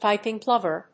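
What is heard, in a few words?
"piping plover", English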